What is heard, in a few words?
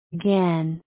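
"again", USA, female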